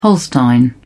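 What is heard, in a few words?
"holstein", British English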